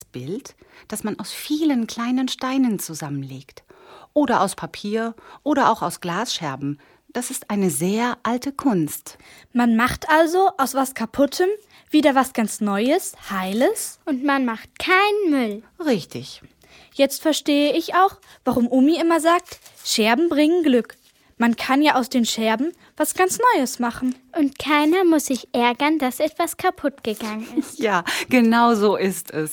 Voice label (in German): hoerspiel